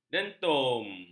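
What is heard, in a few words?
"dentum", Malay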